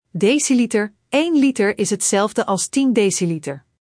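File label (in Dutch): Eén liter is hetzelfde als tien deciliter, dictee; Spelling Gr6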